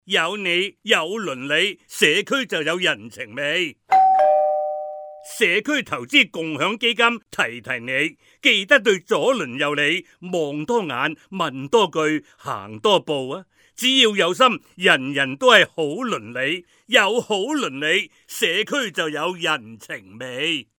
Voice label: radio ad